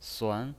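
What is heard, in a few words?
"suan", Mandarin Chinese pinyin, second tone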